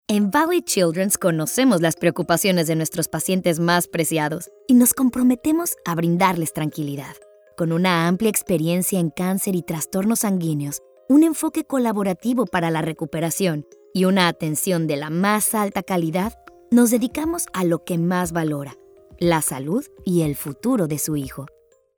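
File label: Spanish Voice Over, Healthcare E-learning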